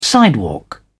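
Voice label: "sidewalk", British English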